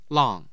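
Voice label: "lang", Mandarin Chinese, tone 4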